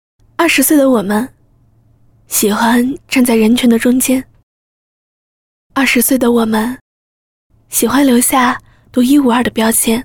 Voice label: 微电影旁白

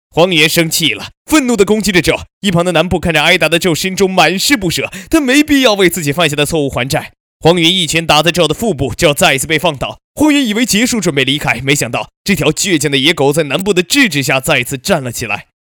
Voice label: 推荐解说动漫快节奏燃

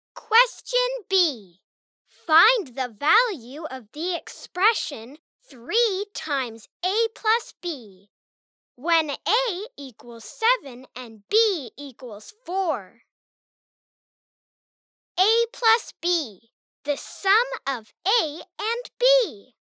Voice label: 外籍英语童声听力问答

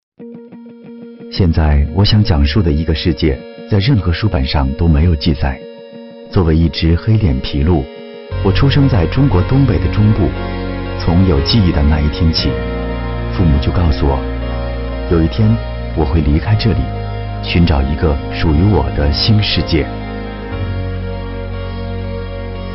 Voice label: 独白; 麋鹿

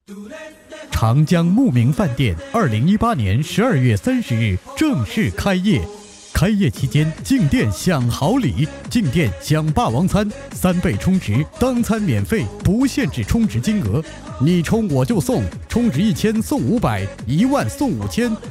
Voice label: 开业广告餐饮开业充值

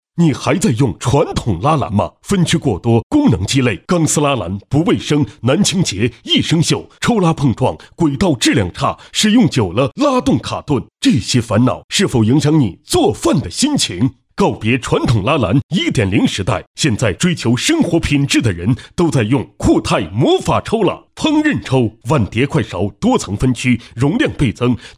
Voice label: 电视购物厨房拉篮

